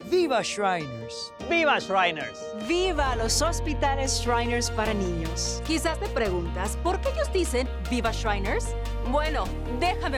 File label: Radio; Viva Shriners